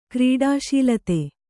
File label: "ಕ್ರೀಡಾಶೀಲತೆ", Kannada